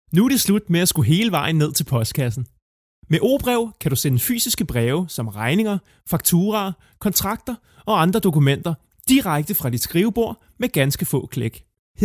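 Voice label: danish male; lektoring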